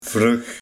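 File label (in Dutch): "Vrug", dialect; Vroeg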